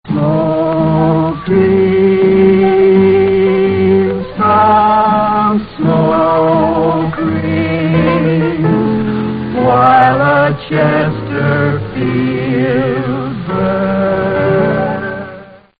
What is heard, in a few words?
Commercial; Chesterfield